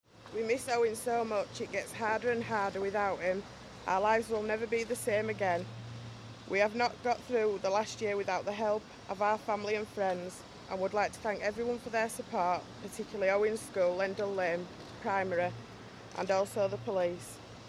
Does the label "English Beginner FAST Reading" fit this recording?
No